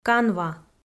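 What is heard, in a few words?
"канва" Komi, female; kpv audio dict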